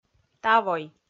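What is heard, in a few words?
"тавой", Komi, female; audio dict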